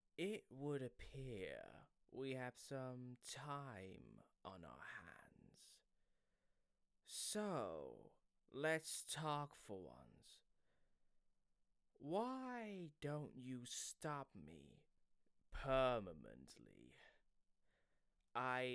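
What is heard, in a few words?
Sinister Killer voice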